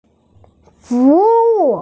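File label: "fu wu" Chinese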